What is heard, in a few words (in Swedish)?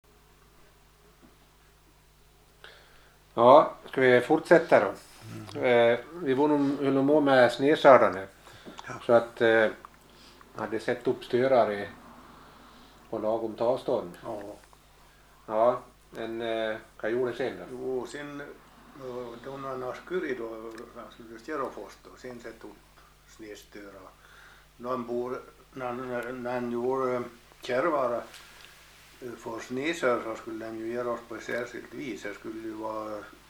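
Boda Dalarna, dialekter; Folkminnen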